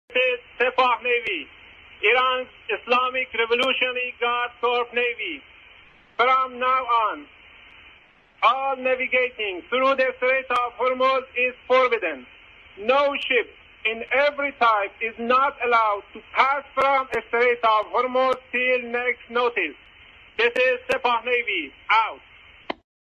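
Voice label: Navy announcement closure Strait of Hormuz; IRGC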